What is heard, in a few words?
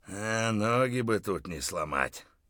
mutter, voice; Escape from Tarkov